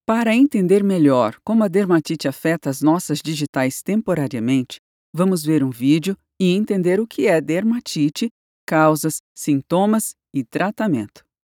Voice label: portuguese brazilian documentaries